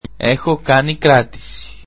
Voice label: greek I have a reservation

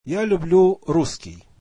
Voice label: russian I like Russian

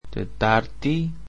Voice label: greek Wednesday

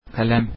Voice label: turkish pen

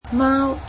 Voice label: "cat", Cantonese

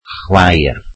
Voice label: welsh sister

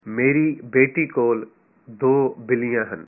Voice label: punjabi my daughter has two cats

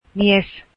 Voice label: finnish husband

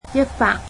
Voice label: "one hundred", Cantonese

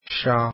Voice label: arabic alphabet sheen

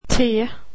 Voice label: swedish alphabet t

